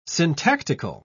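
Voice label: "syntactical", British English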